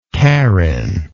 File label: "carin", English